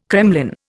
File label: "kremlin", Indian